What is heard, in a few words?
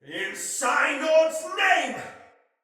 Pre Death Soldier Male; Battle; Barks; Dialog; Sinecure Soldier Male A